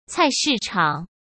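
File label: "菜市场", Mandarin Chinese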